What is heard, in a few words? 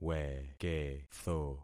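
"weketho", concatenated syllables; Affixing experiment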